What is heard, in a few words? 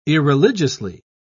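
"irreligiously", British English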